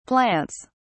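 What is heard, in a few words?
"plants", British English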